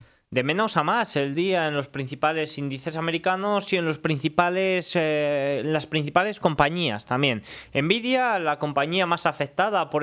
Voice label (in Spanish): radio